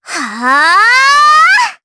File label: Vox Casting, jp; May; kingsraid